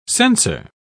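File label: "censer", British English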